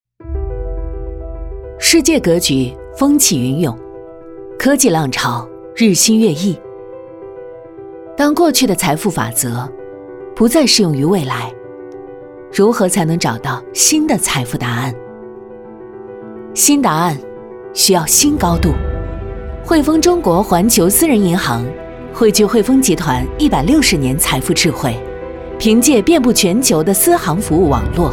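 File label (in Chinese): 女 酷帅大气 沉稳品牌片, 国语配音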